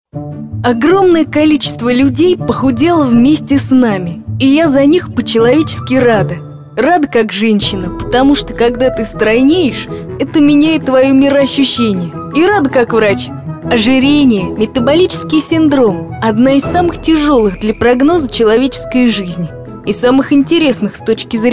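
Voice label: parody, Russian; Malusheva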